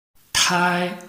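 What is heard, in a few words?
"tai1", Mandarin Chinese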